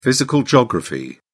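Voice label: "physical geography", British English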